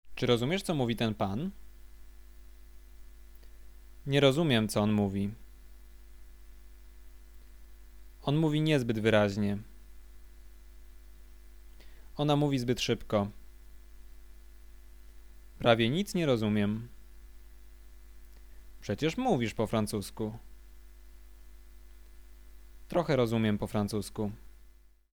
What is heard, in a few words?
conversation; lektorek; firstyear